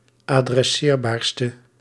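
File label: "adresseerbaarste", Dutch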